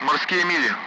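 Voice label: Speech, Russian; Allied Flight; nautical miles; DCS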